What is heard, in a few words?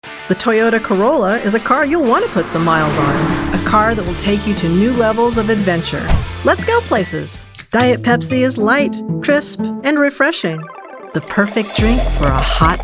Commercial